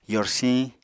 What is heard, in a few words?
"yorsiñ", Apatani